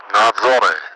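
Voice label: Russian speech, Mi-8MT; Messages over the zone; DCS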